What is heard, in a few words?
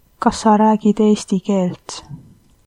do you speak, estonian